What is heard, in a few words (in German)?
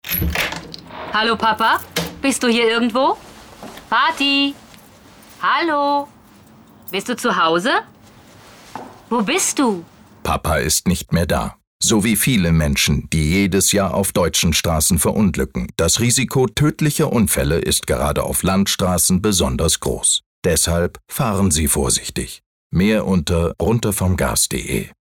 Radiospot zur Verkehrssicherheit; Verkehrssicherheit Papa